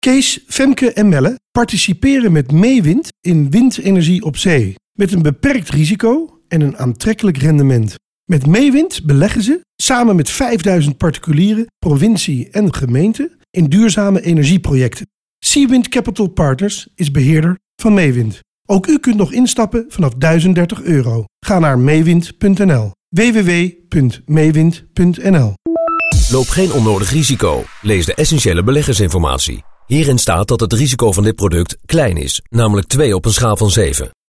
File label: radiospot